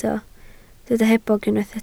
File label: "hebog", Welsh